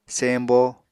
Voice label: "sembo", apatani